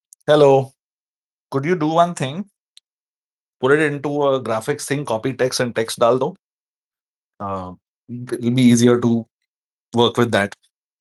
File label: voice message